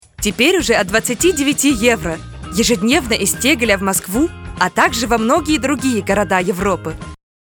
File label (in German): Werbung Russisch; LOFT VOICES